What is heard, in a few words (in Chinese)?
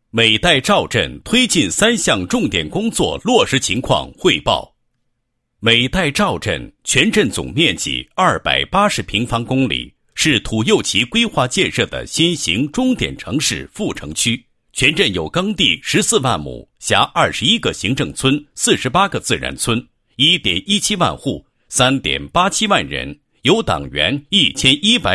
男-工作汇报; 美岱召镇推进三项重点工作